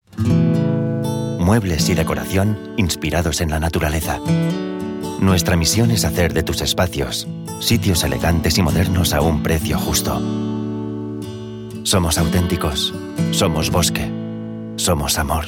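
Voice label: European Spanish, Commercial; voice demos; Golocalise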